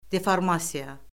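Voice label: "deformasiya", Azerbaijani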